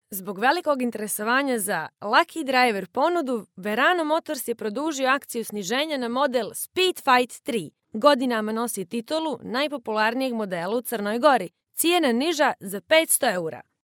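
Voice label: Montenegrin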